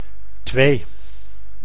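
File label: "twee", Dutch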